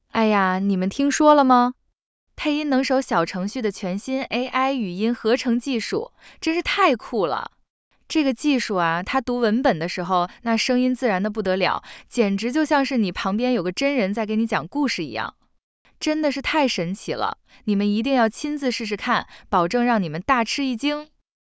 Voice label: zh-CN Multilingual Neural; Xiaoxiao